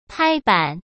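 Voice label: "拍板", Mandarin Chinese; hsk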